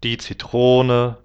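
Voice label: "die Zitrone", German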